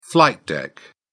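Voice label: "flight deck", British English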